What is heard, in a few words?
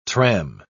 "tram", pronounce